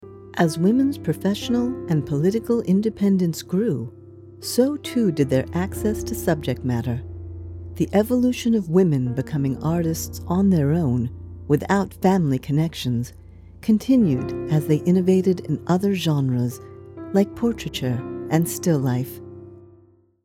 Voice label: Documentary Narrator